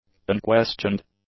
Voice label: "unquestioned", english; adjectives

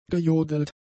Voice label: "gejodelt", German; adjectives

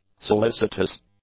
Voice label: "solicitous", english; adjectives

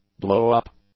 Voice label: "blowup", English

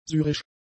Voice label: "syrisch", German; adjectives

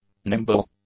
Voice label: "nimble", english; adjectives

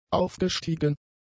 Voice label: "aufgestiegen", German; adjectives

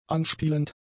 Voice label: "anspielend", German; adjectives

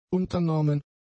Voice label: "unternommen", German; adjectives

